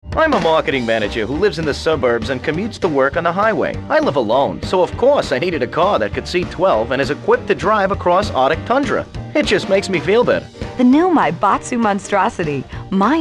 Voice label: commercial; GTA3 Radio Stations - Commercials